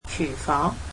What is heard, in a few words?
"kitchen", cantonese